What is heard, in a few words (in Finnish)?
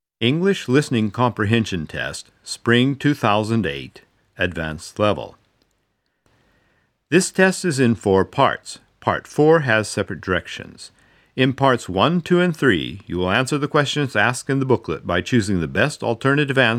Englanti pitkä kuuntelukoe äänite kevät; Engelska lång hörförståelseprov inspelning våren